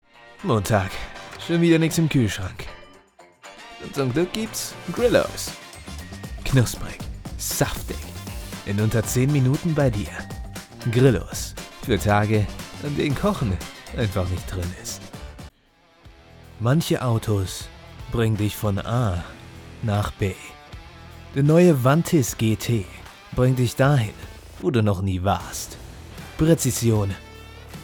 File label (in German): Werbe GER